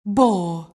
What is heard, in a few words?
announcer custom name only